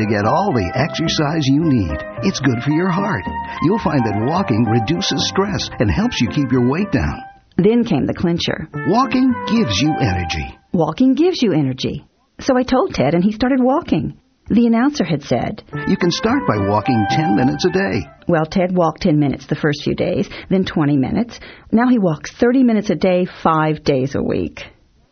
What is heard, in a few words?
woman radio commercial